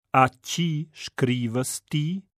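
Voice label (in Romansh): A tgi scrivas ti, Rätoromanische Hörprobe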